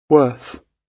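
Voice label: "worth", British English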